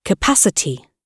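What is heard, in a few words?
"capacity", British English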